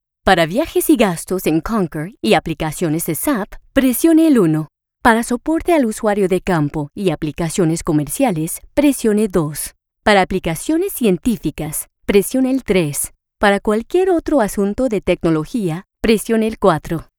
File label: Spanish IVR